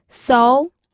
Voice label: "sou2", pinyin